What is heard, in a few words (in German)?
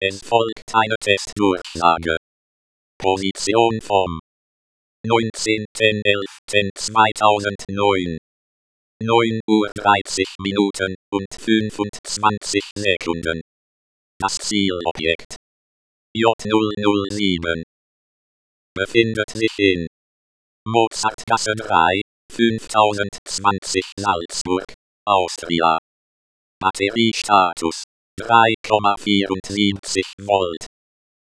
demoansage